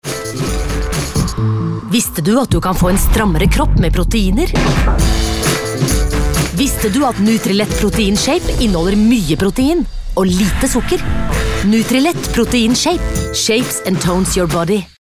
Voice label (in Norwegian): no reklame; nutrilett